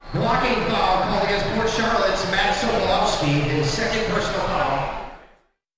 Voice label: Basketball Announcer Speaking; Basketball sound effects; Crowd and stadium ambience, announcers, cheering

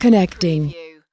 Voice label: US female connecting; lynne publishing

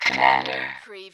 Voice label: Robot voice, "commander"; lynne publishing

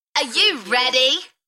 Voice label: UK female Are you ready Enthusiastic; beanstalk audio

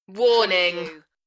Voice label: UK female, Warning; Tech / Sci-fi; beanstalk audio

